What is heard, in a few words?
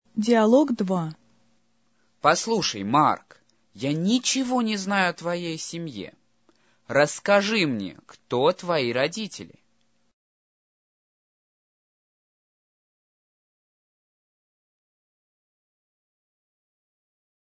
dialog, russian